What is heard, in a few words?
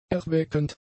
"erweckend", German; adjectives